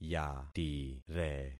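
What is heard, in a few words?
"yatire", concatenated syllables; Affixing experiment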